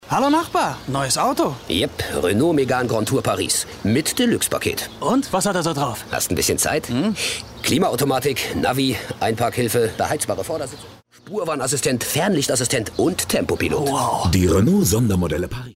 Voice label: Werbung Radio; Renault

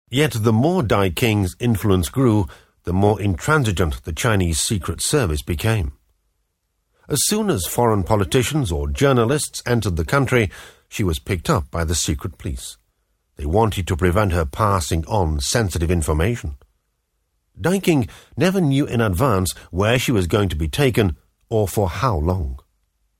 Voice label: dokumentation

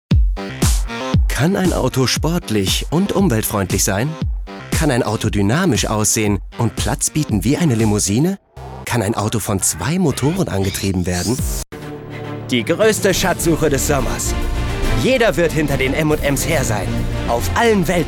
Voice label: Werbung

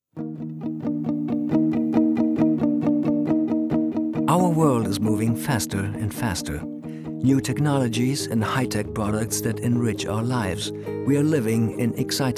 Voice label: englische Version; ZEISS

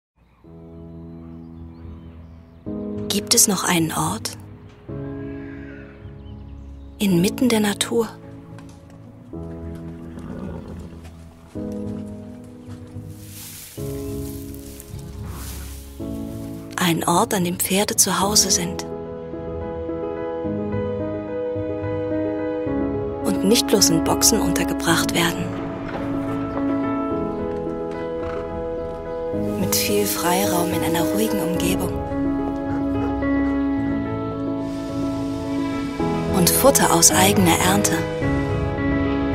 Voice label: Werbung

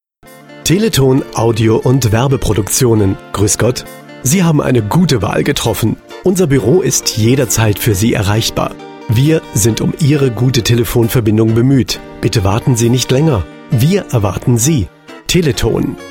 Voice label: Telefonansage; Teleton

